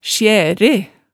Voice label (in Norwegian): "sjære", hemsedalsmaalet